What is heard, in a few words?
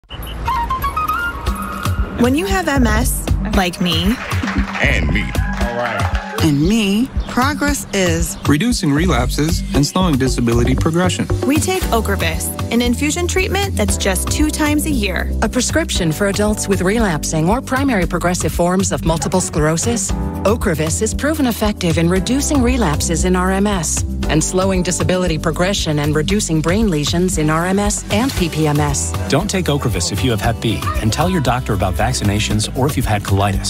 Commercial, medical narration; OCREVUS